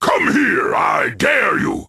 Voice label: Demon Guards Taunt